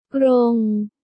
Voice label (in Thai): "กรง", Thai